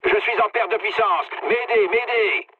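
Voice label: French damaged engine mood med; War Thunder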